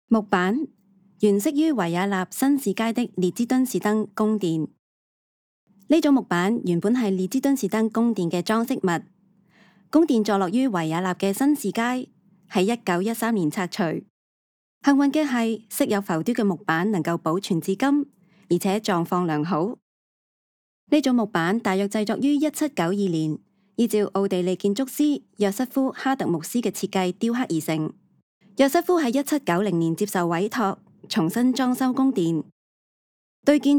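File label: Cantonese, audio guide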